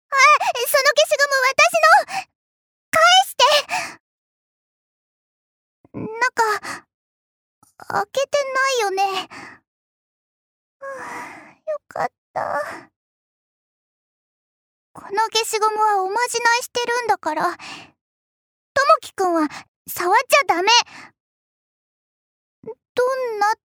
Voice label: girl; 小さな女の子